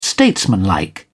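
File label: "statesmanlike", British English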